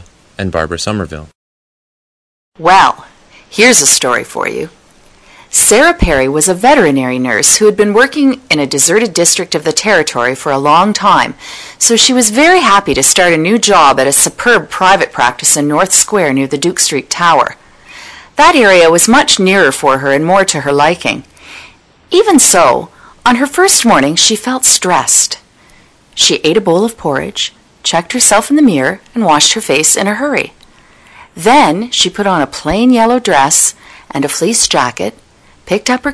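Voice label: ontario, International Dialects of English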